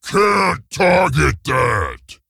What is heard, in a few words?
Ogre Emote Error Target; Boulderfist